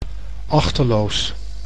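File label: "achteloos", Dutch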